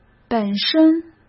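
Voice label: "本身", Mandarin Chinese; chinese flashcards; hsk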